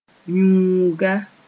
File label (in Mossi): "yũuga", moore